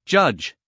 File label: "judge", US English, male